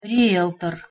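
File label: "rieltor", Russian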